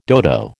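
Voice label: "dodo", American English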